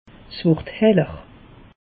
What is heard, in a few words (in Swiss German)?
"heller", alsace; meteo